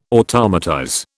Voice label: "automatize", British